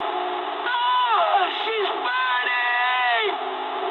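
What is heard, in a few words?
English on fire mood high; War Thunder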